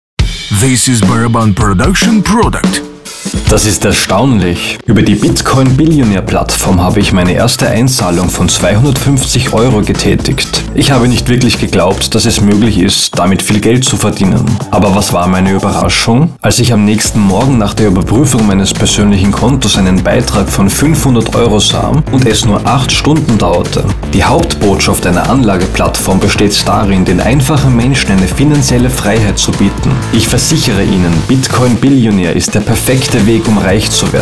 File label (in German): German Language; Bitcoin Billionaire